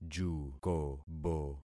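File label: "jukobo", concatenated syllables; Affixing experiment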